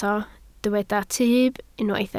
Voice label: "tyb", Welsh